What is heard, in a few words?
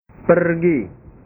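"pergi", Indonesian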